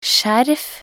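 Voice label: "skjerf", Norwegian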